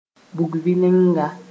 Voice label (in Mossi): "bug-vɩlenga", moore